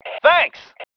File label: THANKS, MALE